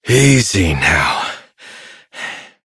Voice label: Horse Calm Adult; Duviri